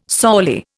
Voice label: "sorely", Indian